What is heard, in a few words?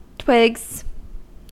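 "twigs", US English; pronunciations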